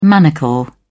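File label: "manacle", English, uk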